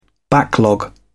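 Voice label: "backlog", British English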